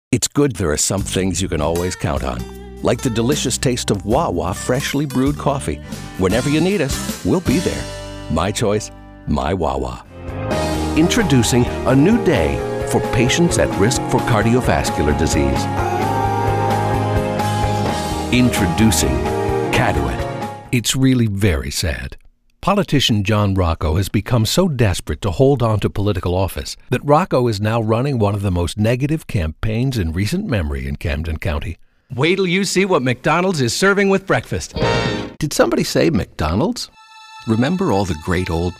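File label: commercial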